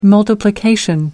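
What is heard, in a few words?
"multiplication", English, British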